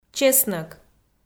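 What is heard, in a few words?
"чеснӧк", Komi, female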